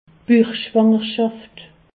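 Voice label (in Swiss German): "buchschwangerschaft", Alsatian; medecine; grossesse extra utérine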